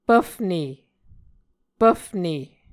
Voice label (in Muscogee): "pvfne", Muscogee